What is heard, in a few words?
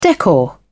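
"decor", British English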